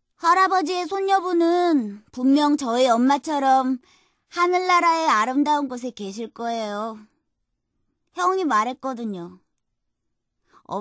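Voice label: Korean female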